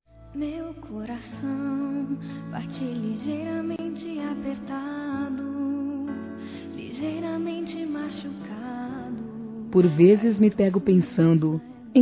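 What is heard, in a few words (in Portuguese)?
ROMANTICA CASAL, Feminino; Telemensagens; Românticas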